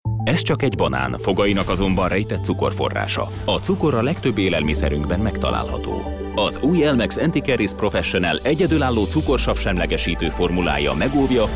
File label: hungarian narration